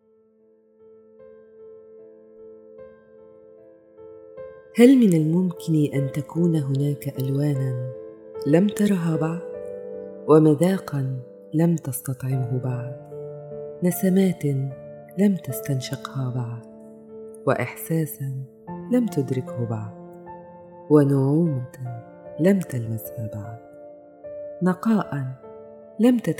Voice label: arabic narration

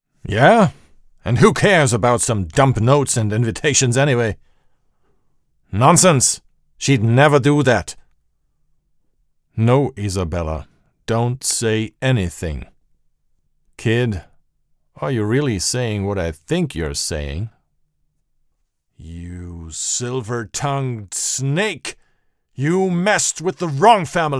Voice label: english video games; actor de doblaje profesional

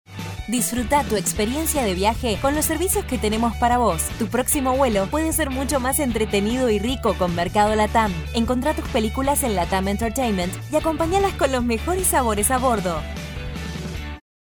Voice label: spanish argentinian tv shows

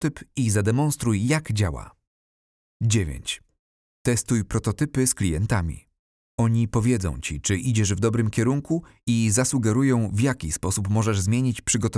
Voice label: polish narration

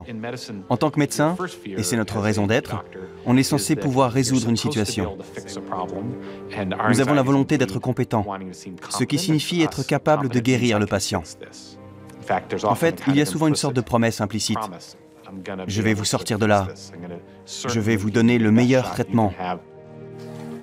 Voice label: french tv shows

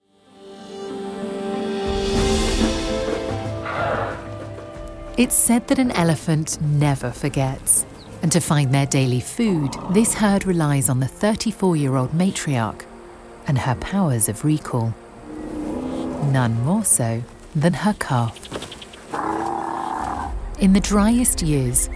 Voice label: English British narration; voice actors

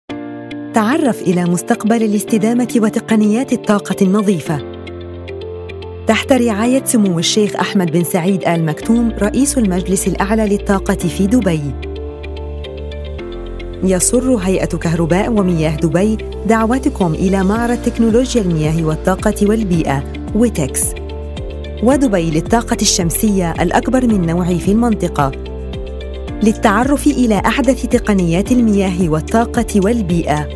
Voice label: arabic corporate videos

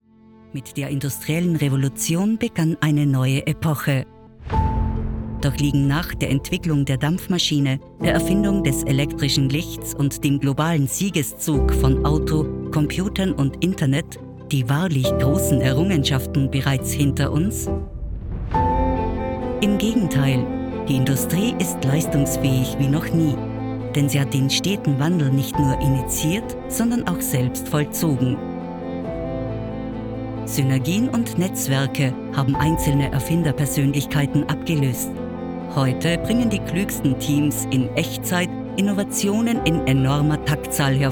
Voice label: german austrian narration

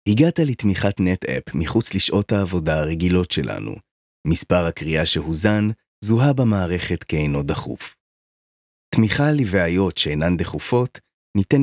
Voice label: hebrew narration

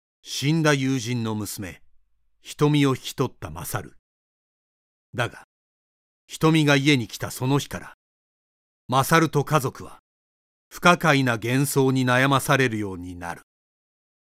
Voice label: japanese movie trailers

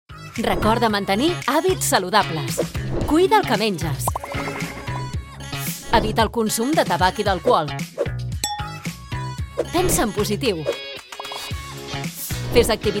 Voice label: catalan

